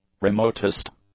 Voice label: "remotest", English; adjectives

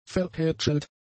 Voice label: "verhätschelt", German; adjectives

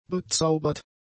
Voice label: "bezaubert", German; adjectives

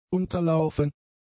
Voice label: "unterlaufen", German; adjectives

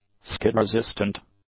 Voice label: "skid-resistant", English; adjectives

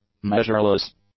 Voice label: "measureless", English; adjectives

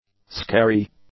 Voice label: "scary", English; adjectives

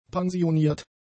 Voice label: "pensioniert", German; adjectives

